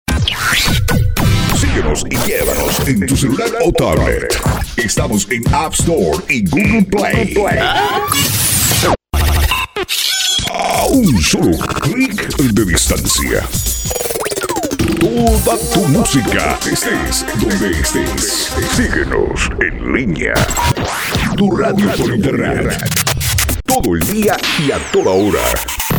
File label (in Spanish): Spot Neutro generico, Estilo generico Neutro, Voz masculina